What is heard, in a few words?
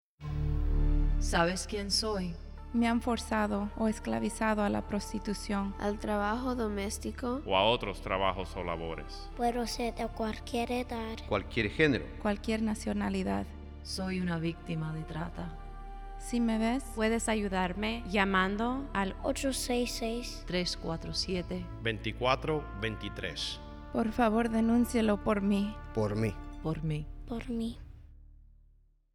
audio psa spanish